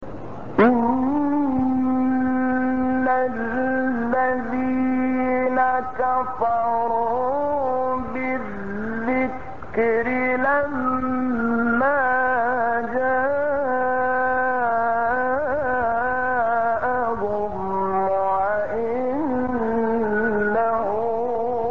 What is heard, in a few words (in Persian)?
تلاوت آیه 41 سوره فصلت; استاد شحات انور